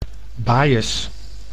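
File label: "bajes", Dutch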